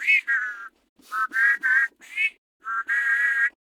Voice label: Patrol, Male

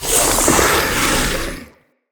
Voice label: Corrupted Sproutfolk Attack Swing, Vocals; Enemy; Characters

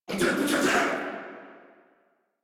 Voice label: Attack Sniper, en; Warframe; Corpus Amalgam Sniper

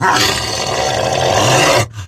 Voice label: Attack Grineer Wolf, en; Battle Barks

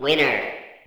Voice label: Arcade Voices; Warframe; Ambience; Nineteen Ninety Nine

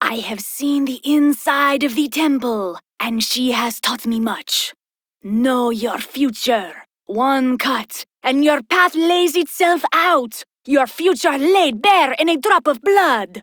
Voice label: Ost Fem en; Cetus

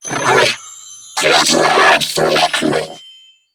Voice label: Female, Grineer; New War; Narmer; Patrol

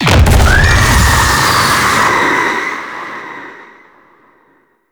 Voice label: Sentient Vessel Pain; Warframe; Tau

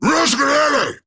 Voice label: Player Spotted Grineer Ghoul Sargeant, en; Grineer Ghoul Drill Sargeant; Battle Barks

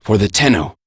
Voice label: Operator Voice; Battle Begins; en; Operator Mission

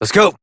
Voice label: Horse Start Adult, Dialog; Duviri

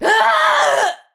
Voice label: Death Ranged, Battle Barks; Tau; Tau Dax

